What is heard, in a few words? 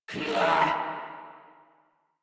Threatened Crewmen; Warframe; Corpus Crewmen; Crewman D